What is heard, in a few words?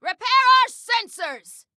Repair Sensors, Female